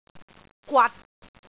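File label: "gwat6", Cantonese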